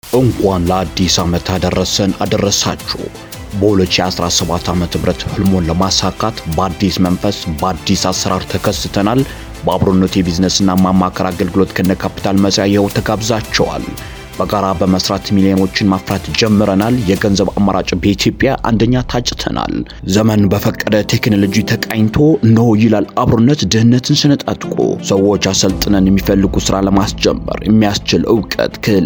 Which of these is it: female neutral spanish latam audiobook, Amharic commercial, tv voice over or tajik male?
Amharic commercial